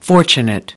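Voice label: "fortunate", English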